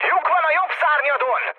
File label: right wing, speech hun; War Thunder